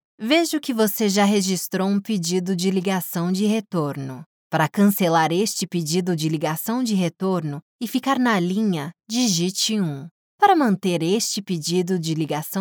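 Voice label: Brazilian Portuguese, female, IVR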